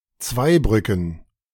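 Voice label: "Zweibrücken", German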